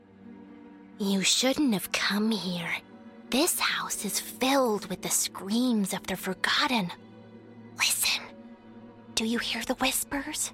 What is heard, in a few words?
Gaming US